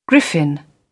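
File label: "griffin", British English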